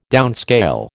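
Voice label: "downscale", American English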